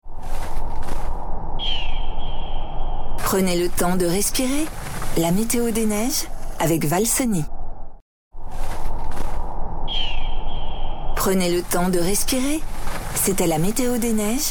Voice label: Billboard; Vallee de la Haute Maurienne